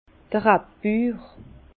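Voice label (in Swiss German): "rabbur", Alsace Bas Rhin; vin; exploitant de vigne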